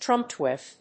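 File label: "Trumpetfish", English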